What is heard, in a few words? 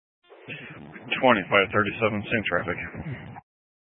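Sheriff Dispatch, scanner audio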